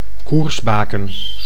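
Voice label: "koersbaken", Dutch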